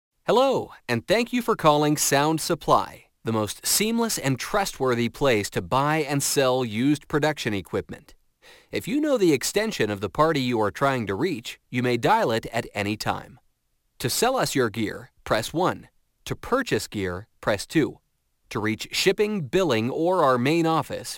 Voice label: voice male